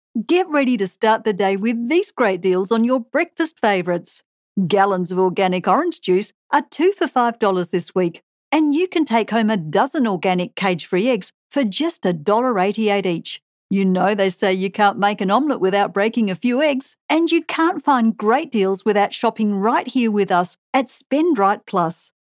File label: Casual Conversational